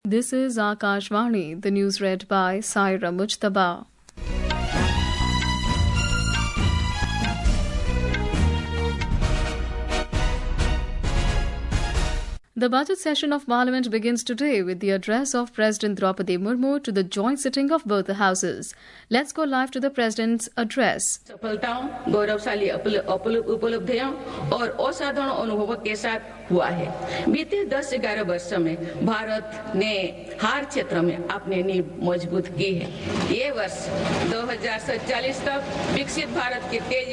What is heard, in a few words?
English; Rachael Haynes announces retirement from international cricket